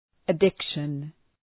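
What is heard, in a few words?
"addiction", English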